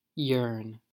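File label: "yearn", English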